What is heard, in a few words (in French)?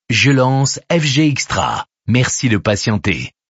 lancement radio; fgxtra